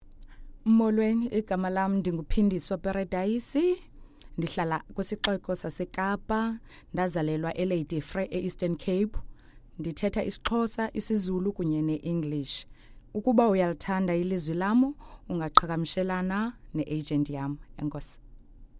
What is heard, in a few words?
xhosa intro